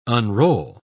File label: "unroll", pronounce